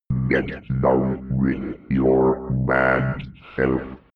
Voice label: robot voice funnky fx

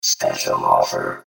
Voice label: spec offer, voices

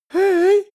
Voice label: boy shy "hey"

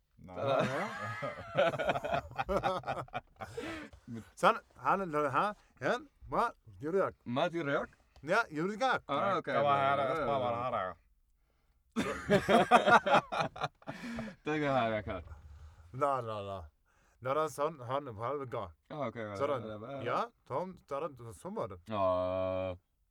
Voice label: talk calm close laugh

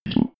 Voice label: robot voice two

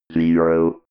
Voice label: robot voice zero

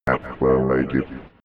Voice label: robot voice affirmative fx

